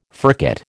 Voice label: "furcate", American

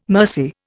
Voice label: "mercy", Indian

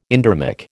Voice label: "endermic", American

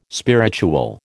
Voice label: "spiritual", American

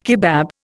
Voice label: "kebab", Indian

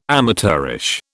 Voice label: "amateurish", British

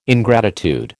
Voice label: "ingratitude", American

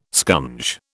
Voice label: "scunge", British

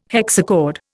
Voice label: "hexachord", Indian

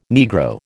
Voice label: "Negro", American

